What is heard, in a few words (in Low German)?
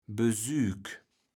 "Besük", kaevels platt